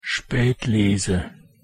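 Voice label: pronunciation german spätlese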